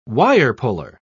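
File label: "wire-puller", British English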